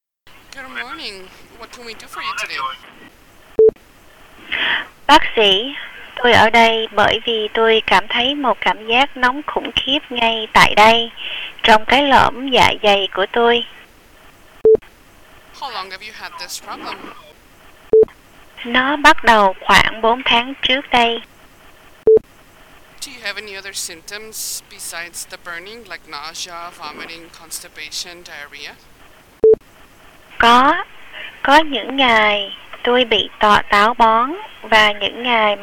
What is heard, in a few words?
Medical Colonoscopy Procedure Vietnamese without interpretation